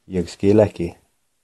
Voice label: no understand, Icelandic